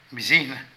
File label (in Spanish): Ratón, Zapoteco de Petapa; Animales; Vocabulary